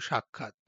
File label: Male